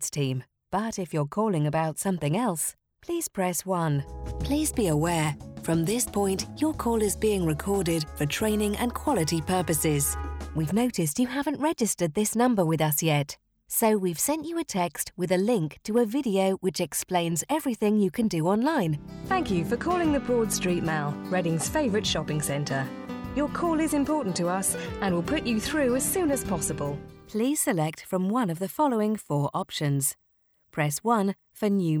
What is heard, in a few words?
On Hold & IVR